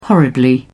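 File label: "horribly", British English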